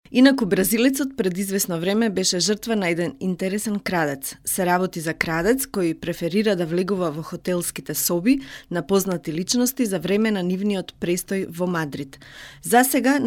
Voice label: Makedonca Kadin, seslendirme; kadin sesler

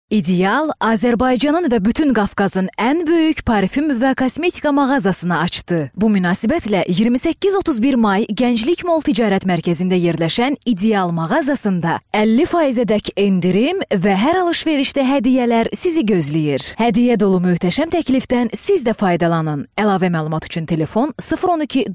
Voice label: Azerice Kadin; seslendirme

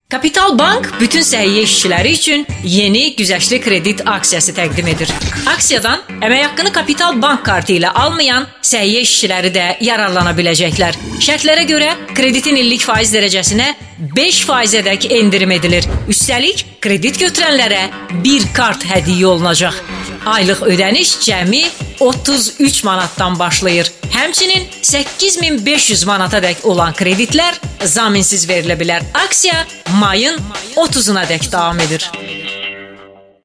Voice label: Azerice Kadin, Azerice seslendirme

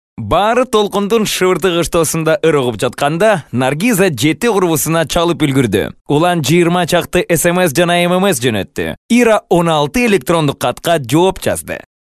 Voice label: Kirgizca Erkek

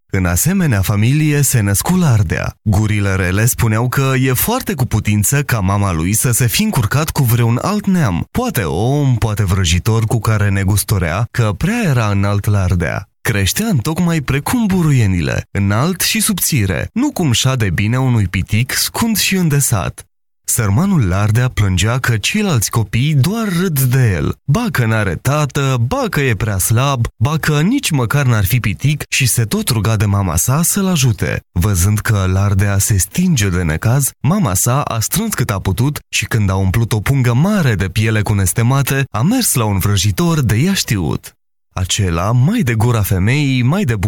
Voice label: Romence Erkek, seslendirme; erkek sesler

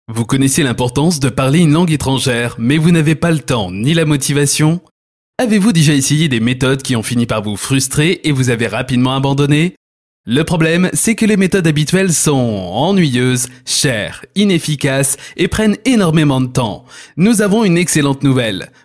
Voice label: Fransizca Erkek; seslendirme

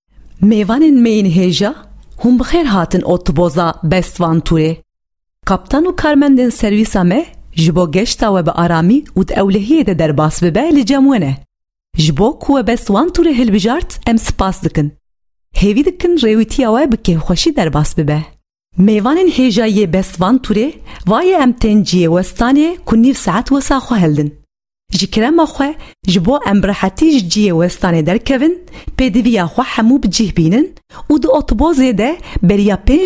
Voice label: Kurtce Kadin Kurmanci; kurtce seslendirme; kadin sesler

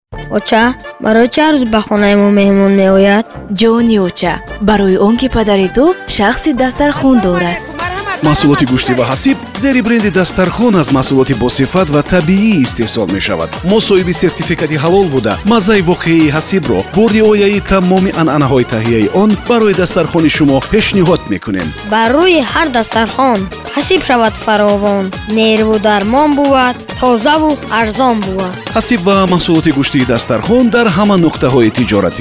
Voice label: Tacikce Kadin, tacikce seslendirme; kadin sesler

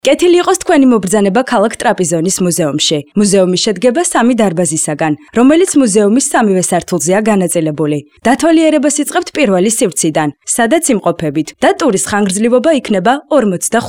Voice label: Gurcuce Kadin

